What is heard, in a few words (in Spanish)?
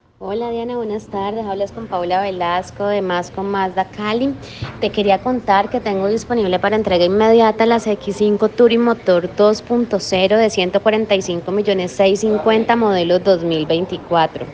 CONVERSACION WASAP ASESOR AUDIO